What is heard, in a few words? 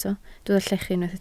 "llechu", Welsh